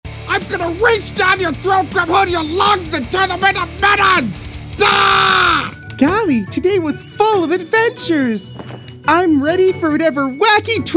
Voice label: Animation